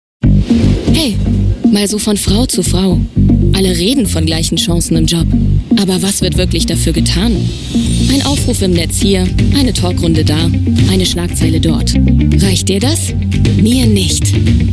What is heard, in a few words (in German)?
werbung; deutsche bahn